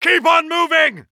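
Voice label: British Neutral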